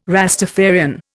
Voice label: "Rastafarian", Indian